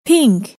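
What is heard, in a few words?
"pink", English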